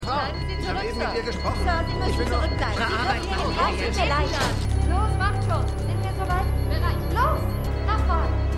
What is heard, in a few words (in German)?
Aerztin Krankenschwester, Stimmproben; LO SVU